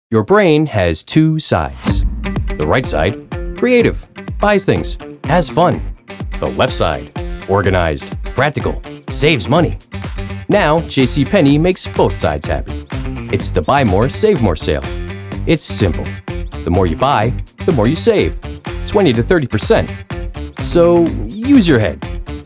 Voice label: commercial, professional voice actor